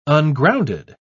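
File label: "ungrounded", British English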